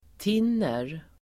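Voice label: "tinner", Swedish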